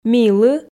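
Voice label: "милы", Komi, female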